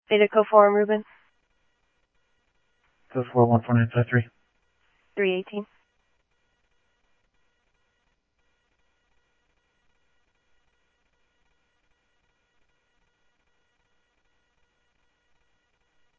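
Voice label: Mesa police department, scanner audio trunk; Mesa Simulcast; MESA PD Superstn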